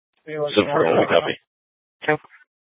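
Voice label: Sheriff, Parks, Sheriff radio scanner; Shrf Mnt (Parks)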